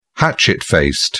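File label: "hatchet faced", British English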